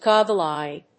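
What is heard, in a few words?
"goggle-eyed", English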